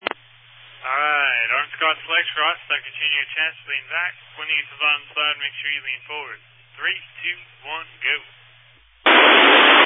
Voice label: Family Radio Service (FRS)